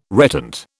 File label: "reptant", British